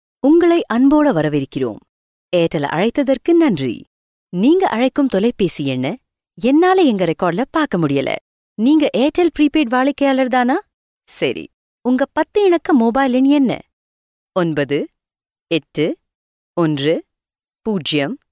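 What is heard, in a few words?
Tamil Sri Lanka female voice over, narrative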